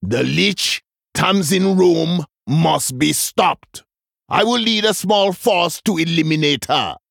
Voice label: Male Troll; Brukan; In Game Victory Pre Explosion

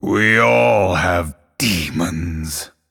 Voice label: Male Night Elf TRIGGER Inner Demon

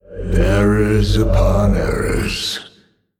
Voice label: Male Old God; Emote ERROR GENERIC

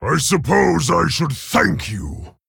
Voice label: Male Orc Thanks

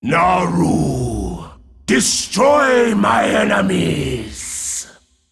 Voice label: Male Dragon; Kazakusan; In Game Turn

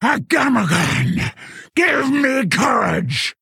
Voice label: Female Quilboar Idle; Sangtusk; Kurtrus; Mission3

